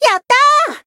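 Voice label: Voice Japanese; rajah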